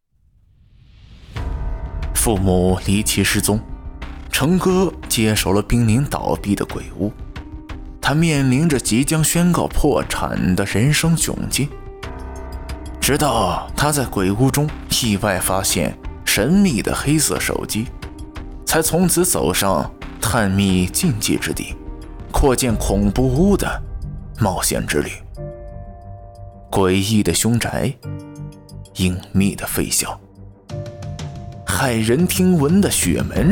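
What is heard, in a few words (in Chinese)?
有声书悬疑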